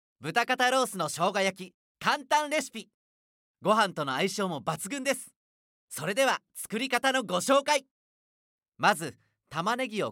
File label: male; 料理番組